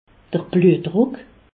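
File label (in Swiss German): "bluetdruck", Alsatian; medecine; tension artérielle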